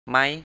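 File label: "ไหม" Thai, high tone, male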